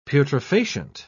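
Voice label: "putrefacient", British English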